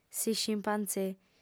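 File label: "s'iscimpantzè", female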